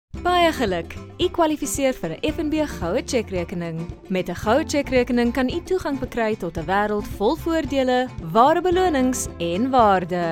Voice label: afrikaans commercial